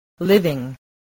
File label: "living", spelling